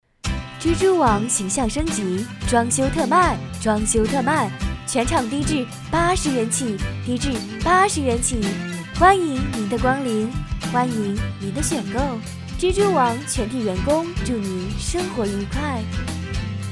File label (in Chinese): 促销; 蜘蛛王促销mixdown